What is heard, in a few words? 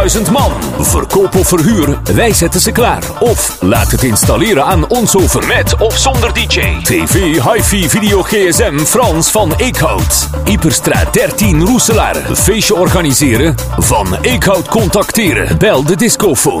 stereo house spot; verhuur